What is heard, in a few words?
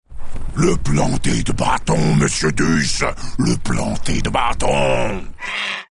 Wyvern Rider Pissed; Warcraft 3